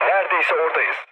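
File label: Turkish near waypoint, mood low, speech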